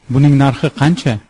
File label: how much, uzbek